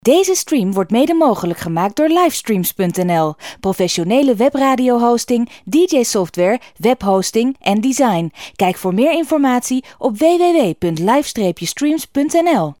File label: commercial